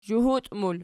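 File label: "zhuhut" plural, Archi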